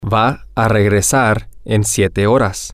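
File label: he She Will Return In 7 Hours, spanish, male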